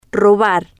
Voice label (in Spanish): "robar", Spanish